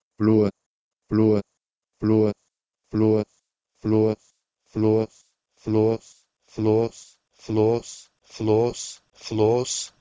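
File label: Proto-Indo-European bhloe to Latin flos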